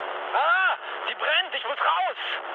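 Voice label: German on fire mood low, speech; War Thunder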